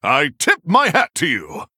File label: Male Human Greetings